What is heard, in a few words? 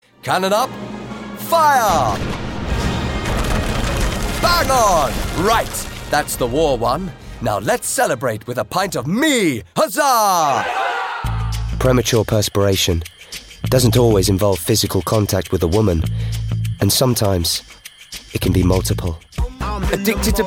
voiceover